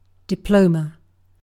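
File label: "diploma", British English; pronunciations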